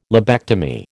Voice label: "lobectomy", American

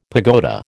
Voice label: "pagoda", American

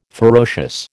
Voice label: "ferocious", American

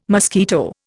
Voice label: "mosquito", Indian

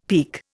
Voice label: "peke", Indian; Dictionary

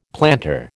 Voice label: "plantar", American

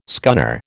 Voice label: "scunner", American

